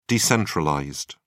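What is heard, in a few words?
"decentralized", English, British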